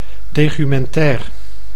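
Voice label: "tefumentair", Dutch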